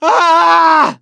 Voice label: hurt critical, voice; gambler

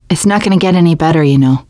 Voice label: generic, teengirl, voice; player; survivor

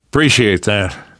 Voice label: thanks, namvet, survivor voice; Left 4 Dead 2; left4dead2 dlc2

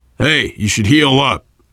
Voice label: player suggest health, voice, biker; Left 4 Dead 2; left4dead2 dlc3; survivor

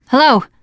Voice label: scenario join, teen girl; player; survivor; voice

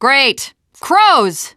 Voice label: gravel hill, teengirl

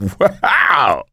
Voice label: positive noise, coach; survivor

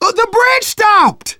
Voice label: hitting bridge button, manager, survivor, voice; Left 4 Dead 2; left4dead2 dlc2

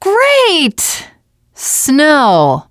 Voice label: teengirl, voice; Left 4 Dead 2; left4dead2 dlc2; survivor

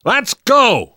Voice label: move on, survivor voice; namvet; Left 4 Dead 2; left4dead2 dlc2

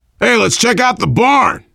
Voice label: world farmhouse, voice, biker

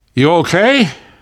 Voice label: revive friend, namvet, survivor voice; Left 4 Dead 2; left4dead2 dlc3

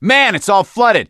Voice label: misc directional, voice; gambler

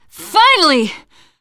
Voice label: safe spot ahead reaction, teengirl, voice; player; survivor

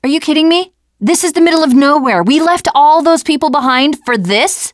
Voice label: teen girl

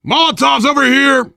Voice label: spot grenades, voice, biker; Left 4 Dead 2; left4dead2 dlc3; survivor

